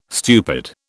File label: "stupid", British